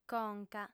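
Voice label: "conca", female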